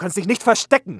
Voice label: curious; mohaa; dialogue